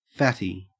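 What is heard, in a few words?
"fatty", Australian English; pronunciations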